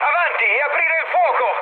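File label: Italian attack position mood med, speech; War Thunder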